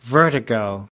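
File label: "vertigo", American English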